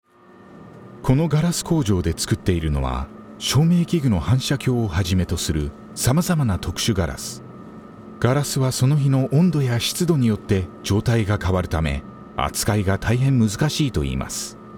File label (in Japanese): ガラス工場紹介 番組 TV ラジオ ウェブ等 落ち着いた 重厚 日本語